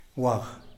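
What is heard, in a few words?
"waj", Tlacochahuaya Zapotec; type of mouse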